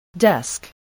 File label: "desk", English